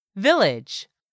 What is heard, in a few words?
"VILLAGE", English; 3000 words